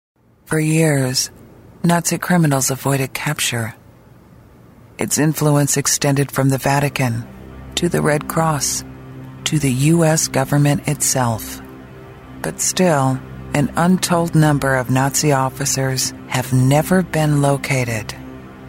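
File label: English American female voice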